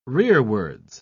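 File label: "rearwards", British English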